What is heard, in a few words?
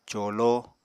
"colo", Apatani